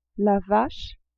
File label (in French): "vache", French